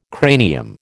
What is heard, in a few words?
"cranium", American